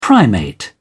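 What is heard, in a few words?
"primate", British English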